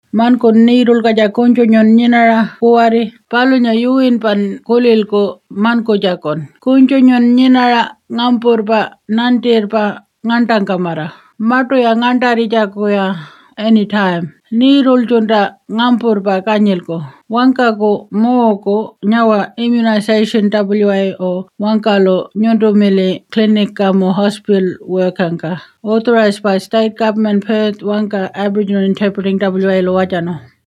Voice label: Immunisation Martu